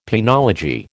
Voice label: "penology", American

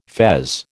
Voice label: "fez", American

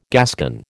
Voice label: "Gascon", American

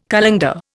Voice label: "colander", Indian

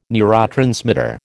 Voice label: "neurotransmitter", American

